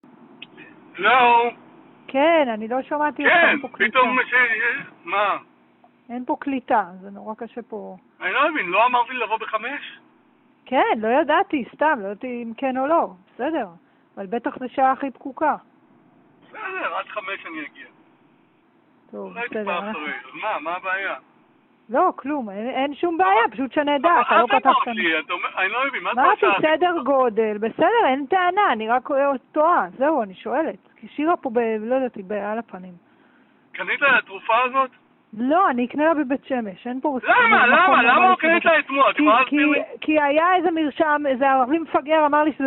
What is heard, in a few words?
Call recording